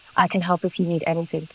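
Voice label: voice acting, es, female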